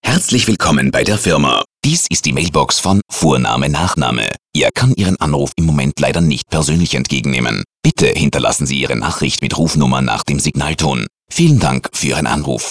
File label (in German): Ansage; Sprachbox